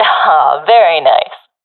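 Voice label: compliment, professional female; vo; Counter Strike Model Pack; CS:GO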